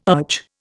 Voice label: "urge", Indian

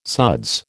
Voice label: "suds", American

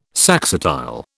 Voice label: "saxatile", British

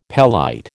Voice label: "pelite", American

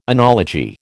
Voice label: "enology", American

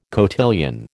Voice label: "cotillion", American

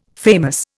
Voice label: "famous", Indian

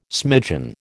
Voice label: "smidgen", American

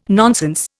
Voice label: "nonsense", Indian

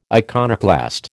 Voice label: "iconoclast", American

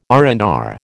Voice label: "R and R", American

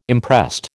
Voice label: "imprest", American

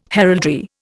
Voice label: "heraldry", Indian

